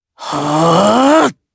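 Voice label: Vox Casting Japanese; Lucias; kings raid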